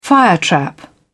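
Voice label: "firetrap", British English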